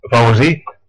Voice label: where from, west flemish

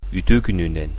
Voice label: good afternoon, sakha

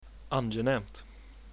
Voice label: meet, swedish

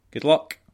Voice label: good luck scots

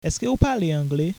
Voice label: do you speak english haitian creole

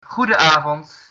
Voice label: good evening, dutch

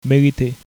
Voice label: you're welcome, haitian creole

